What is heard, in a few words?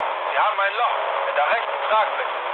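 German right wing mood low, speech; War Thunder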